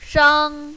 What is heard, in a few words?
"shang5", mandarin, female